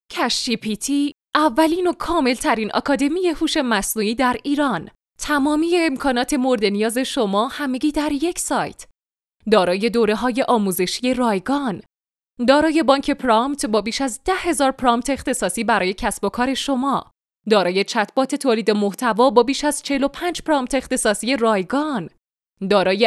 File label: narrator